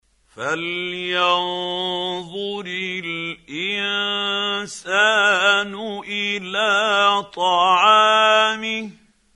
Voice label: quran, Hussary, tafseer; Abasa